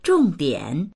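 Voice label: "zhong4dian3", Mandarin Chinese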